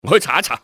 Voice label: im going to check, cantonese male; XBOX; enemy

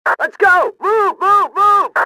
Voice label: "move", radio, male; XBOX

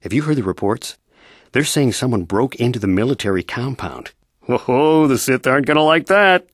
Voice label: male

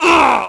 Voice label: fall, negative; Splashdown; XBOX

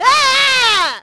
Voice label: fall, negative; Splashdown; XBOX; Umi

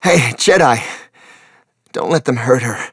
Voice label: wounded soldier, voice; Star Wars Obi Wan; XBOX

